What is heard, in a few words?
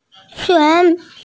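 "qun2", Mandarin Chinese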